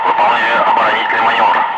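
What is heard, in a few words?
Russian speech, Ka-50 Wingman; Messages engaged defensive; DCS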